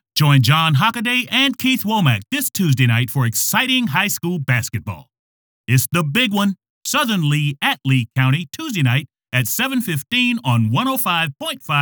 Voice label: Radio Spot